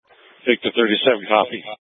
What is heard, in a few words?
Tactical Incidents, scanner